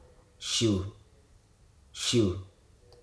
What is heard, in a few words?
"kill", Hruso